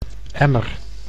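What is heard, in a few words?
"emmer", Dutch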